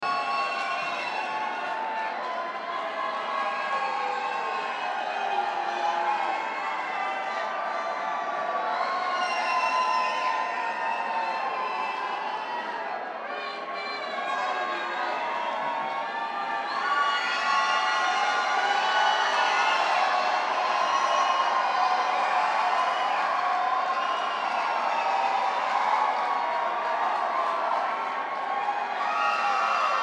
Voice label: Banter Crowd